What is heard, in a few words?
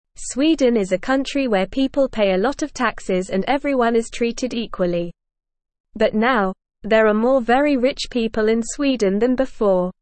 English NORMAL Reading; More Rich People in Sweden Why and How